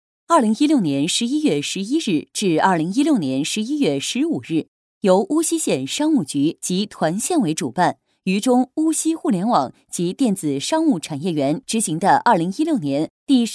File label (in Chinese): 专题 新闻女